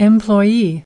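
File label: "Employee", English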